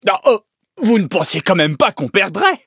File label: victory, fr; Cookie Run